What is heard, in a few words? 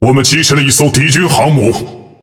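Speech Destroy Enemy Aircarrier, Chinese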